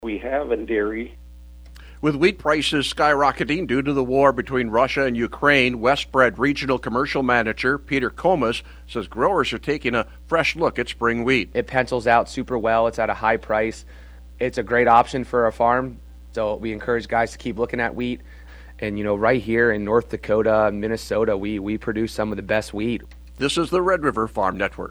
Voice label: air check; WestBred Wheat